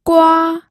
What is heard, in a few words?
"gua1", pinyin audio